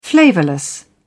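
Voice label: "flavourless", British English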